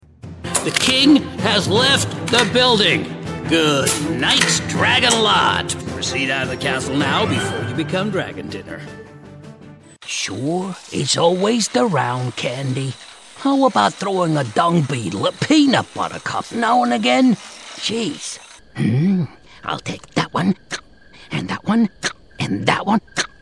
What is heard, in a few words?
male swedish multiple accents animation